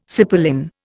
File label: "cipolin", Indian